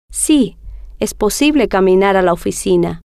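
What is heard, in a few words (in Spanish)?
si es possible caminar officina, Spanish, female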